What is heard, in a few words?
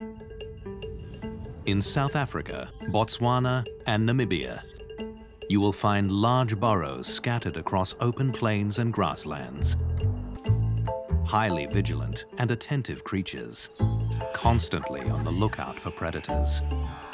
Documentary